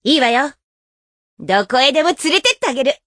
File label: voice, dubbing